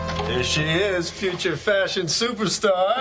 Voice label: Emotion Recognition Multimodal Emotionlines Dataset; DeSTA2